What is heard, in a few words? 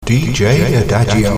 voiceover